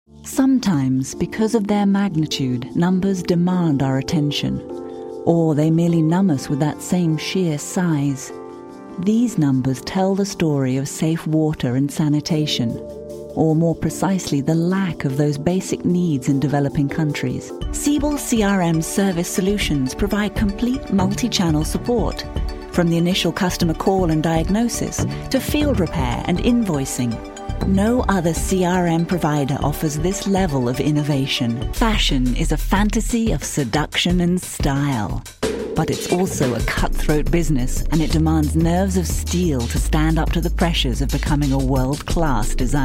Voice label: Narration